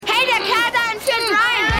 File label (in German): Junge; Keine Gnade Fuer Dad